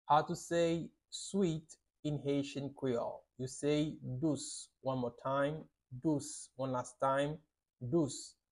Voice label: "Dous" Haitian Creole pronunciation by a native Haitian tutor; How to say Sweet in Haitian Creole